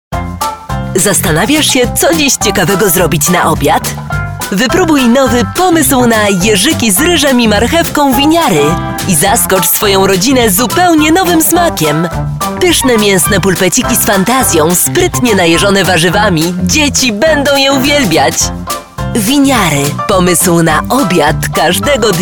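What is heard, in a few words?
Polish, female, commercial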